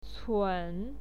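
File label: "cun", Mandarin Chinese, third tone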